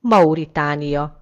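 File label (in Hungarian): "mauritania", Hungarian; gcompris; geography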